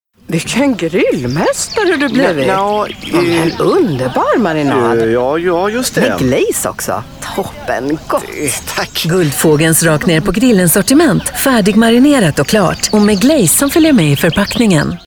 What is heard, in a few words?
swedish